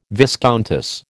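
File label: "viscountess", American English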